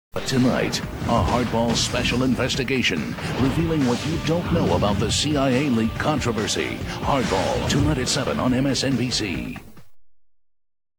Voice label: promo hardball; msnbc